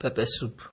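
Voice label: "pepper soup", Nigerian Pidgin, male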